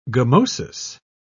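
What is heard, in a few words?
"gummosis", British English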